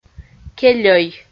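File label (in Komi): "кельӧй", Komi, female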